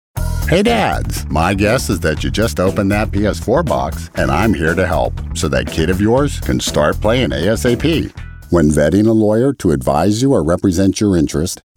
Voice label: E Learning Industrial

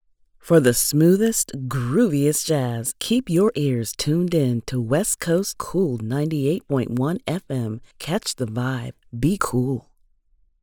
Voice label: Jazz Radio

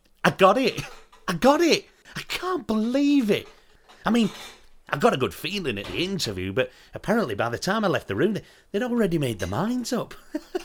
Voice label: Radio Drama

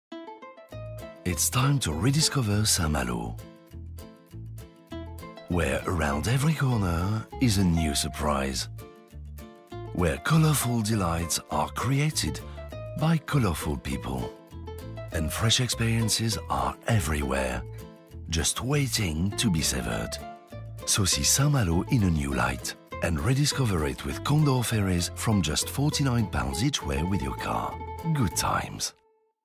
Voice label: spot TV in English; Condor Ferries

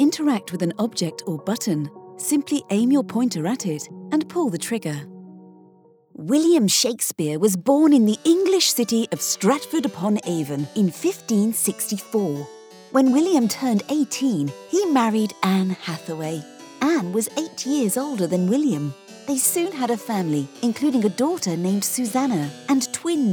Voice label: E Learning Voiceover

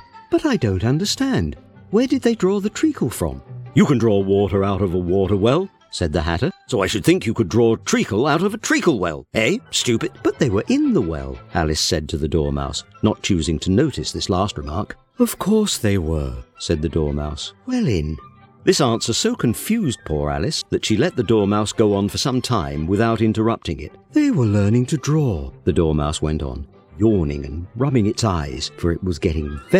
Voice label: Audio Books

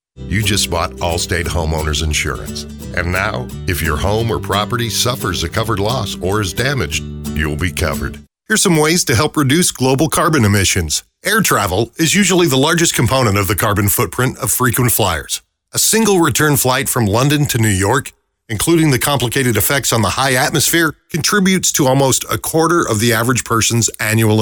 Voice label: Explainer E Learning

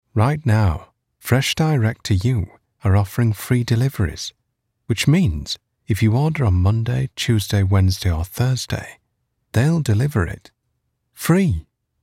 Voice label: Natural Talk Studio

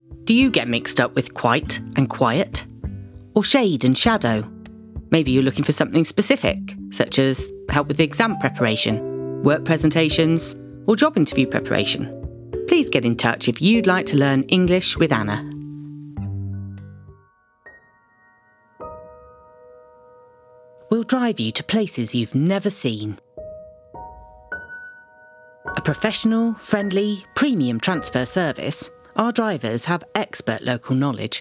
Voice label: Commercial Reel

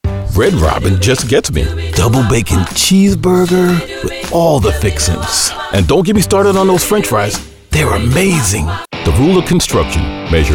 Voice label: commercial

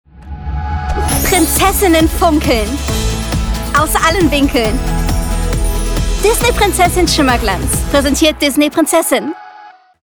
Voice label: COMMERCIAL German; Hasbro Disney Princess

